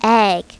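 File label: "egg", English; My Talking Dictionary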